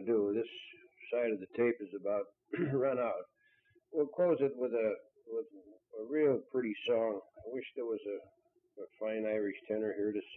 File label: Talking Notes and Words